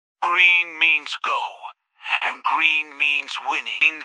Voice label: happy; fertig classic games; fireball